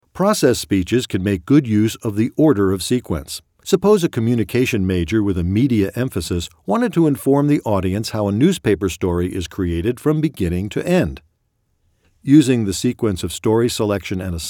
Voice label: Informative Speech